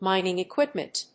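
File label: "mining equipment", English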